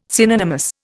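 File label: "synonymous", Indian English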